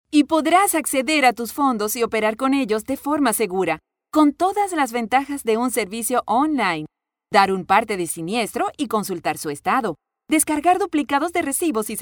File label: locutora venezolana venezuelan voiceover; locutora de Venezuela